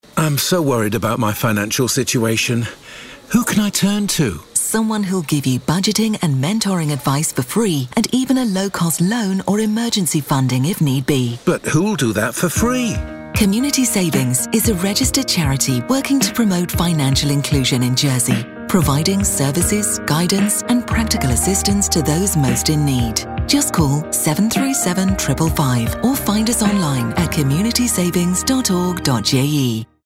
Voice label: advert